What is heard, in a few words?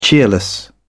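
"cheerless", British English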